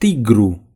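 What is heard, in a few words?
"tiger", Romanian; gcompris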